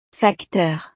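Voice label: "facteur", French; profesiones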